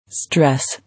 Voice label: "Stress", English

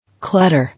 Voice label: "Clutter", English

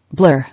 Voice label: "Blur", English

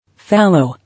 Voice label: "Fallow", English

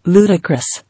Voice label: "Ludicrous", English

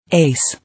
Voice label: "Ace" English

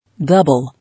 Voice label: "Double", English

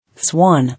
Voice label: "Swan", English

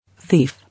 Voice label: "Thief", English

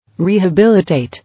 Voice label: "Rehabilitate", English

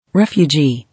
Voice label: "Refugee", English

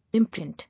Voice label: "Imprint", English

